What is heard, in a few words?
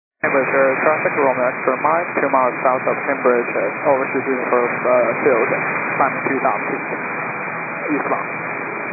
122.925 MHz; scanner radio